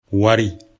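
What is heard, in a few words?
"wari", Bambara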